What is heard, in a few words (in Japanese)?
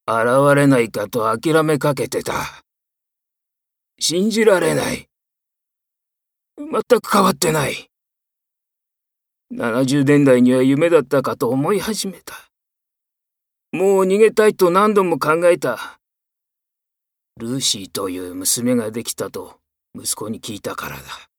老人